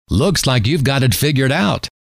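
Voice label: looks like you ve got it figured out, male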